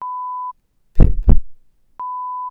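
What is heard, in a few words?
"pum(p)", Welsh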